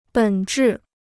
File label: "本质", Chinese